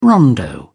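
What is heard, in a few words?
"rondo", English, British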